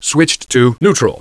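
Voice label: "Switched to fire!" Low Male Voice; Knights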